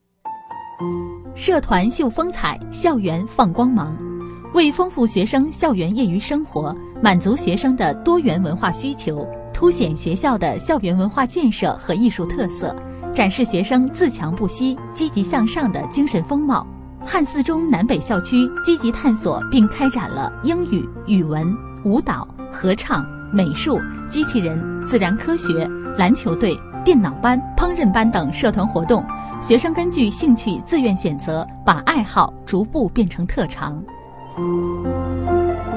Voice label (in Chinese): 学校社团, 女